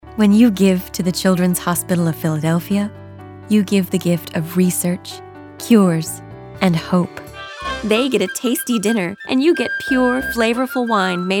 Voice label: Commercial